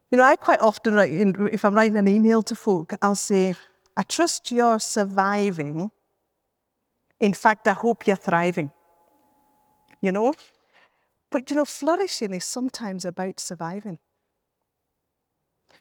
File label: Echo and Noise Room